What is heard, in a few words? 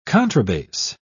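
"contrabass", British English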